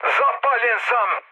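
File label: Serbian on fire mood med, speech; War Thunder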